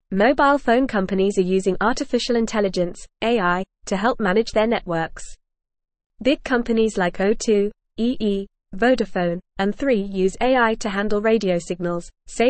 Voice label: English FAST Reading; Phone companies use smart computers to help network

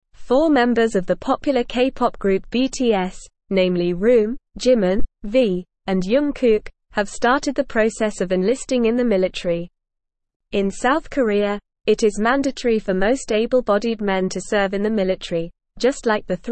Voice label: English Newsroom Advanced NORMAL Reading

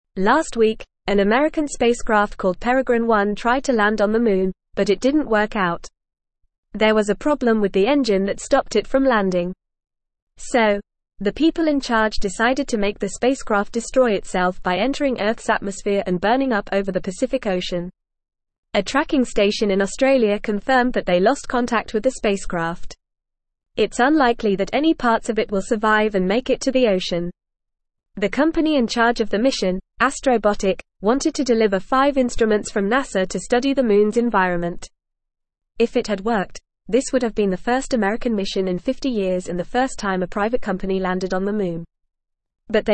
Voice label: English Newsroom Upper Intermediate FAST Reading; Peregrine One Failed Moon Landing Ends in Flames

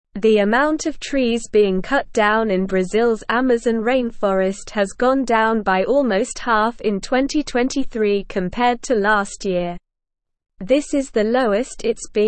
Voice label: English Newsroom Upper Intermediate SLOW Reading; Brazils Amazon Deforestation Rate Drops by 50

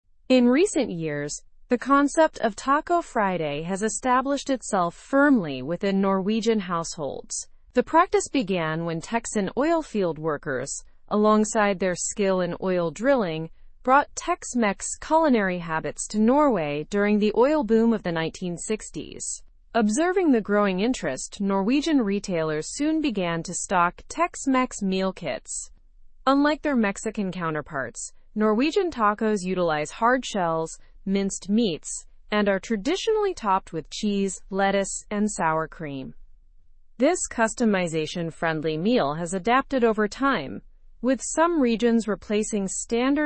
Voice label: learn english through news advanced normal; the norse transformation of tacos

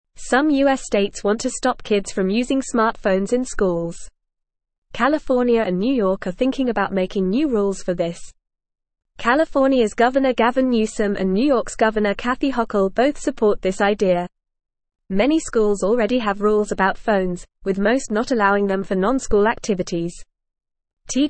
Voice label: English FAST Reading

